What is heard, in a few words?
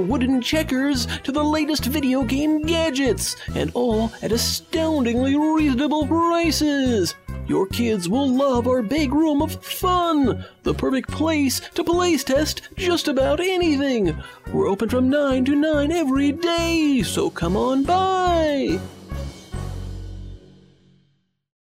Wacky